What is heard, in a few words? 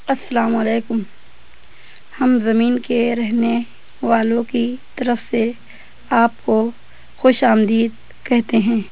urdu; Golden Record